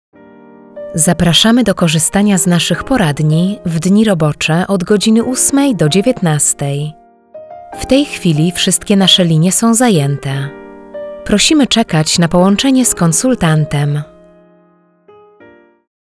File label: Female Polish Voice IVR